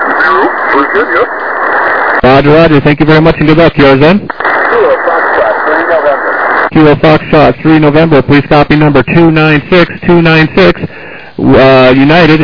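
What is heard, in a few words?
lower sideband 7MHz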